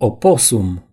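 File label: "opossum", Romanian; gcompris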